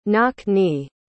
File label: "knock-knee", pronounce